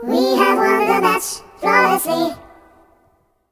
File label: Flawless Possibility, voices, Singer; hedgewars; HedgewarsMobile